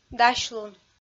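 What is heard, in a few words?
"дасьлун", Komi, female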